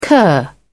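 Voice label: "cur", British English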